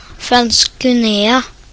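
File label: "French Guiana", Norwegian; gcompris; geography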